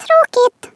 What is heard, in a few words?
"boring", Swedish